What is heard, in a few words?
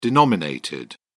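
"denominated", British English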